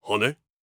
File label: surprised